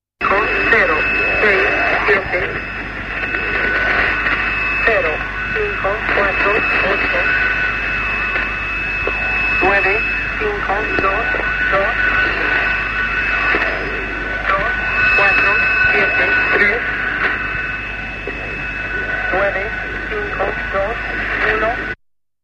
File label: spanish counting 4 figure groups; irdial